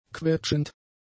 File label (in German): "quetschend", German; adjectives